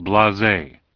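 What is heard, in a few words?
"blase", spoken word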